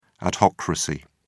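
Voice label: "adhocracy", British English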